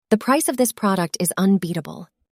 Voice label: synthesized audio